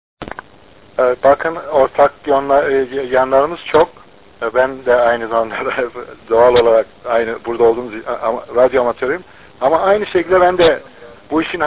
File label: QSO; KONFERANS ODASINA SATASMALAR